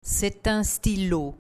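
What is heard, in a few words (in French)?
"c'est un stylo", French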